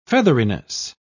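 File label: "featheriness", British English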